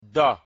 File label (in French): "do" ouvert, mina